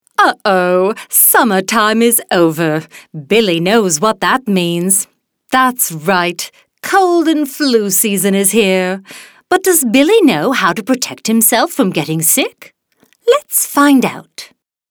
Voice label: British